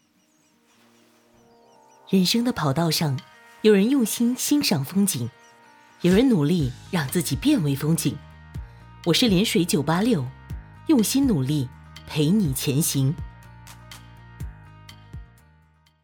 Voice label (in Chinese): 电台台宣